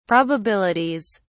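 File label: "probabilities", British English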